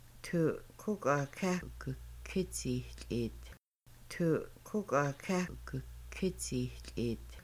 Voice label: We poured water into a cup, Kaska; Making Bannock

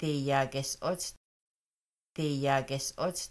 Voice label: They washed themselves, Kaska; Washing Dishes

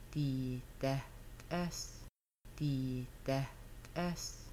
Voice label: You will fry chicken, Kaska; Making Bannock

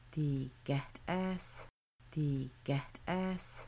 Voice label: Making Bannock They 2 are frying chicken, Kaska

